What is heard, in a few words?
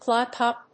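"clock up", English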